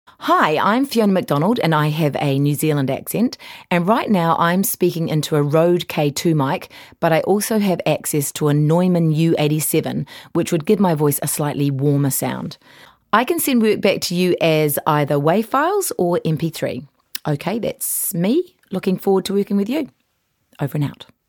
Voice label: Speaking Voice

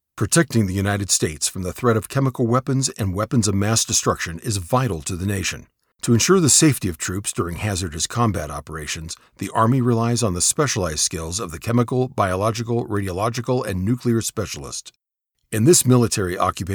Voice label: Army Instruction Video; MOS 74 Delta

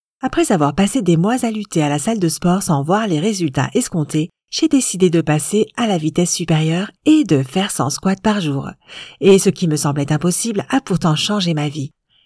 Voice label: narration testimonial

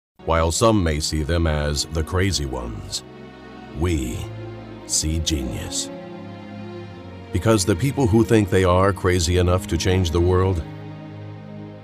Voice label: Commercial Broadcast Corporate VO

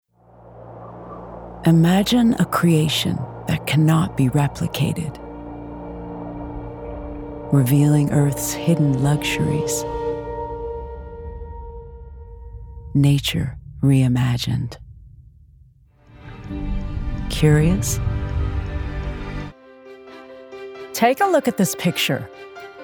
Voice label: EXPLAINER gritty deep friendly emotional

